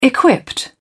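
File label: "equipped", British English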